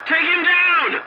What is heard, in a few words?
voice message attack target, english; War Thunder